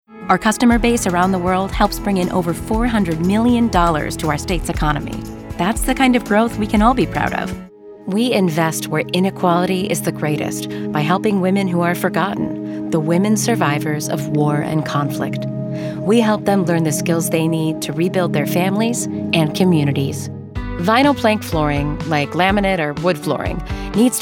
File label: Narrative